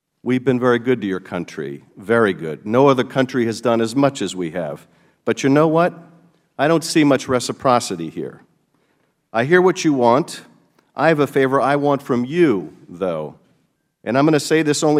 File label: PHONE CALL; schiff; PARAPHRASES